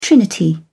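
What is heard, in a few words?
"trinity", British English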